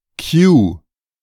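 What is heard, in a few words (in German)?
"Kyu", German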